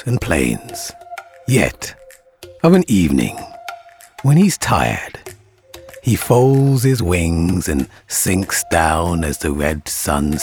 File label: Narration - The sea Wind